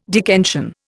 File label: "Dickensian", Indian